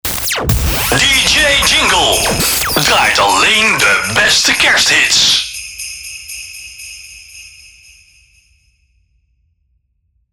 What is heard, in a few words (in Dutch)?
Kerst SFX DJ Jingle Draait alleen de beste Kersthits (met SFX)